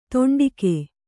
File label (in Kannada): "ತುಂಡುಗೈ", Kannada